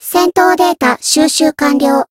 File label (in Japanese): Voice growth jp